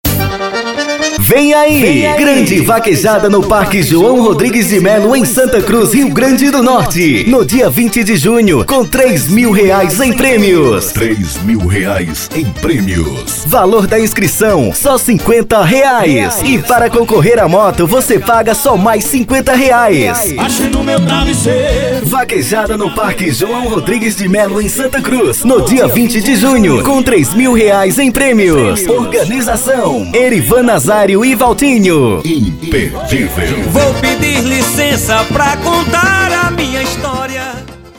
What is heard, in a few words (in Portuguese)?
VINHETA LOCUTOR VOZ IMPACTO GRAVE VINHETA ABERTURA; Vinheta para vaquejada no parque joao rodrigues; em santa cruz rn; vinheta bolao de vaquejada